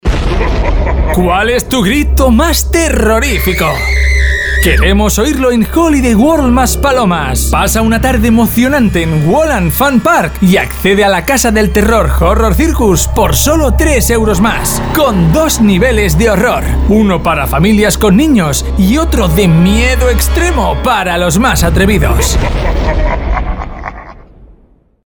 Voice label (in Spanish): Locutor; voix générées par l’intelligence artificielle